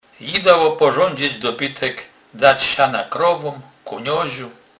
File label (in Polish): "dobytek", kurpie; slownik